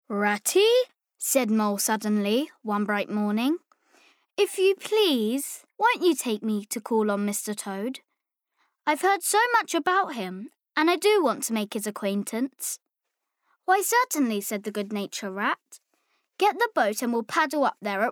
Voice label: Bookread; Wind In The Willows

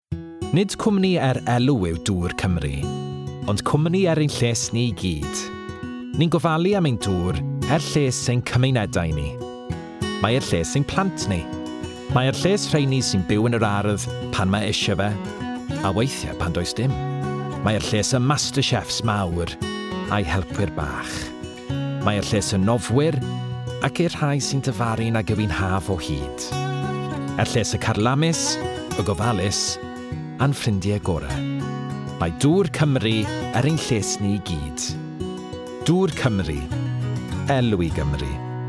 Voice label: Welsh Language

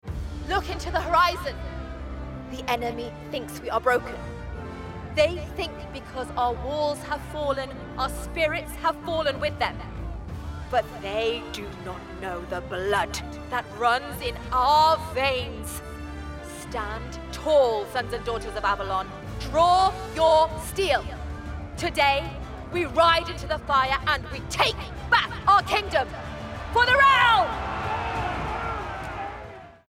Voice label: Gaming Warrior

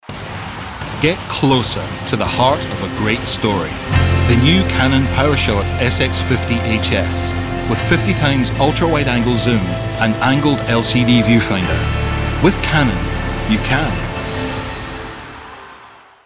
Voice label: Commercial; Canon

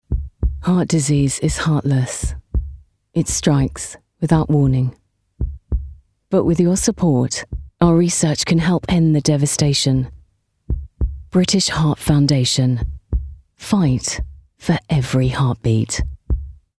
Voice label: Commercial; British Heart Foundation

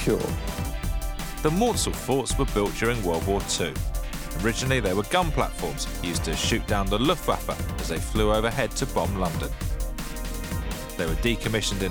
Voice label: Pirate Radio Doc

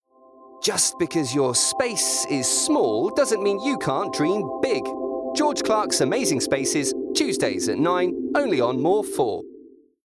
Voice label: Promo

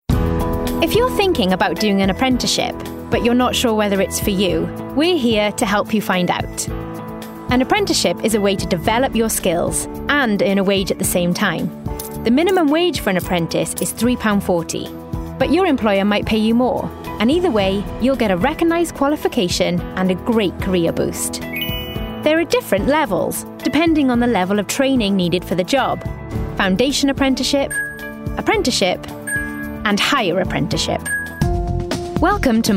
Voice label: Corporate Narration Voicereel

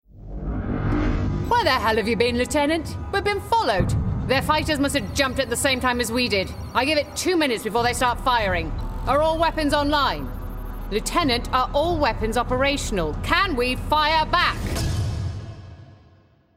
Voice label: Gaming Strong Leader

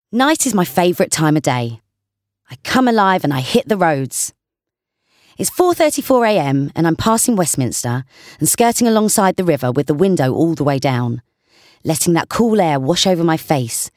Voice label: Audiobook

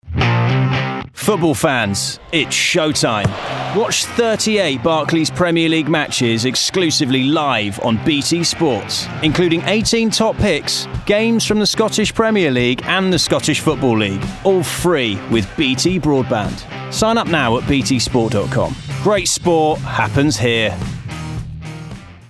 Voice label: COMMERCIAL; BT Sport

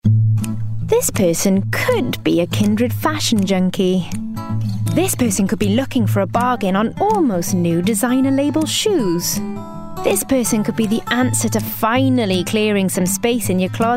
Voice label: Commercial Voicereel; 20/30's Welsh, Comedic/Natural/Likeable

